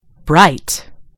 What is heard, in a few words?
"bright", en GB; gcompris